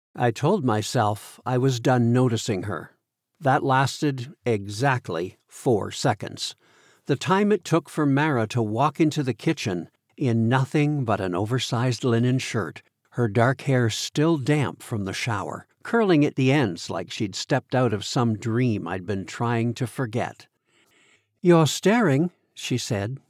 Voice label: Romance 1st POV MF Dialogue